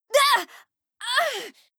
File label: Female; Skyrim Special Edition; Dawnguard Beleval